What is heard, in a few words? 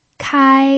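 "kai", first tone, Mandarin Chinese pinyin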